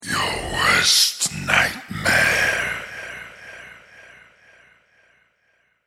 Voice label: The words ‘Your Worst Nightmare’ spoken in deep sinister voice; Evil Voices